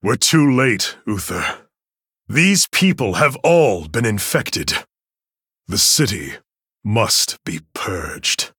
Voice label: Male Human; Arthas; Uther